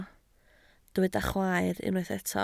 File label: "chwaer", Welsh